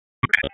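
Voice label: Police District Dispatch, p25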